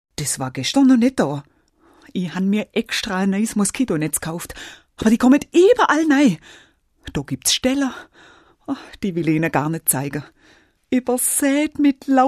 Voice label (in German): Schwaebisch